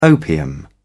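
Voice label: "opium", British English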